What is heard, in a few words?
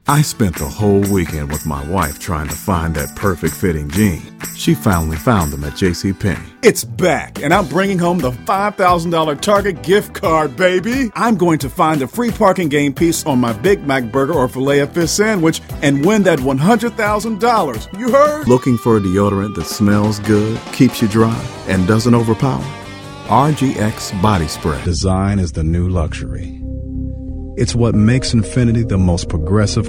commercial